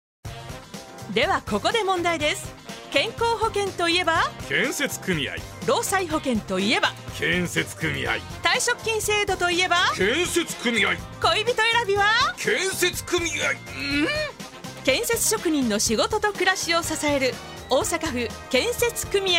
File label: radio; osaka